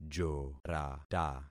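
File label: "jorata", concatenated syllables; Affixing experiment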